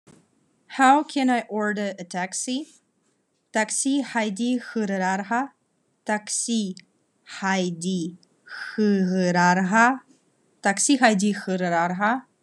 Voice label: Khakas How can I order a taxi

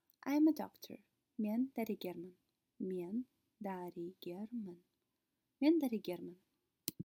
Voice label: I am a doctor, kazakh

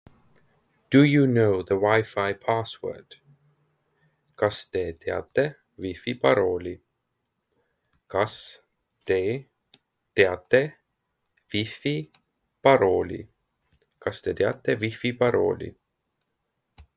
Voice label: Do you know the Wi Fi password, Estonian; the talking estonian phrasebook

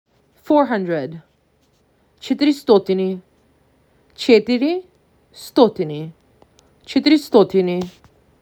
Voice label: MAC 6, macedonian phrasebook

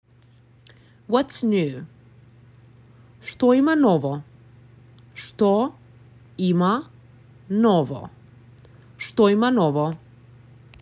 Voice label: Whats New, Macedonian; the talking macedonian phrasebook

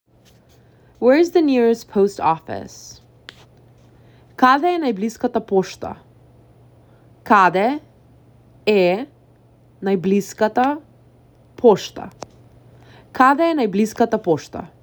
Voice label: Where is the nearest post office, macedonian; the talking macedonian phrasebook

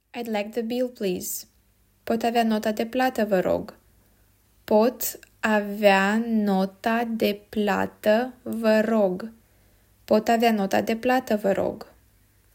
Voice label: Id like the bill please, romanian

